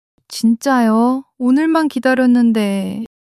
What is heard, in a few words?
sadness, monologue